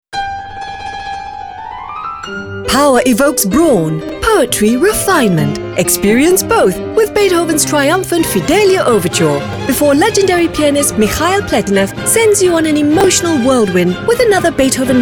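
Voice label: English; Power Poetry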